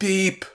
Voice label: Voices exasperated; The Looker